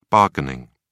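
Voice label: "bargaining", British English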